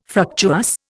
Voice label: "fructuous", Indian